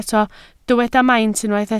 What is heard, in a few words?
"maint", Welsh